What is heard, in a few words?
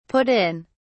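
"put in", British English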